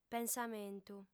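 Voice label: "pensamentu", Sardinian, female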